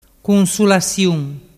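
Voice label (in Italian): "cunsulasiun", Vernazzese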